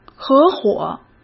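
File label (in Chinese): "合伙", Mandarin Chinese; hsk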